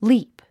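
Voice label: "leap", English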